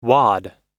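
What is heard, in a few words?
"wad", American English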